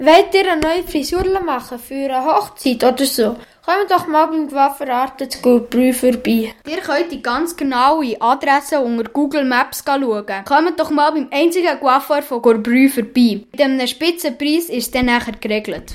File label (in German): Werbung; Coiffeur Arte Gurbrü